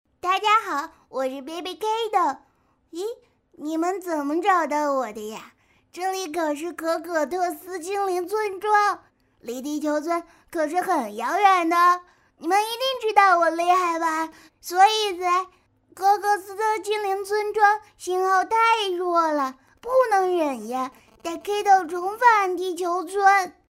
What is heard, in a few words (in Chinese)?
女 动漫 男童; Baby Kido